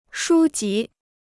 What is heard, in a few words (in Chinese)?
"书籍", Chinese